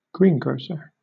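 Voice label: "greengrocer", British English; pronunciations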